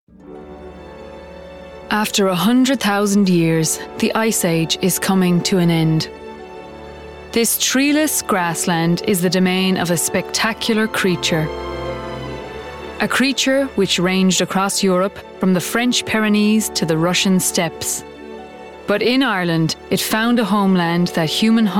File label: documentary